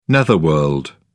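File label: "netherworld", British English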